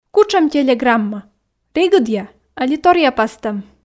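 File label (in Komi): кутшӧм телеграмма регыдъя али торъя пастӧм, Komi, female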